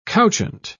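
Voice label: "couchant", British English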